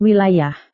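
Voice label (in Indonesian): "wilayah", Indonesian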